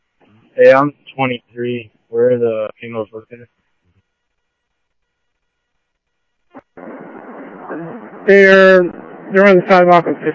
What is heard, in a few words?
Tempe Police, scanner; TEMPE PD A02; SimulcastF-Tempe